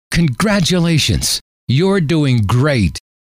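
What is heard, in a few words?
congratulations you're doing great, male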